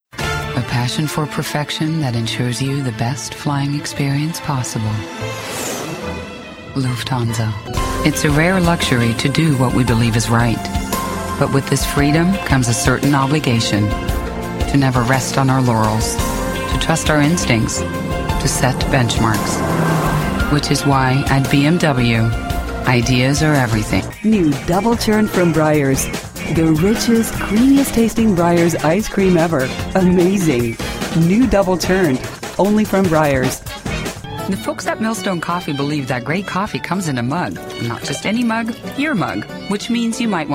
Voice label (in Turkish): Amerikan Ingilizcesi Kadin; seslendirme